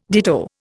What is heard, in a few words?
"ditto", Indian